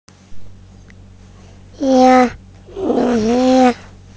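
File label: "xia1 mi5", Mandarin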